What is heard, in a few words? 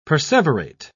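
"perseverate", British English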